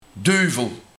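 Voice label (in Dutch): "Duvel" Dutch dialect; Duivel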